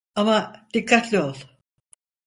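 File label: "ama dikkatli ol", Turkish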